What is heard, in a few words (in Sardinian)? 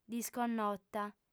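"disconnota", female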